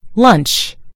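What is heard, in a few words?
"lunch", British English; gcompris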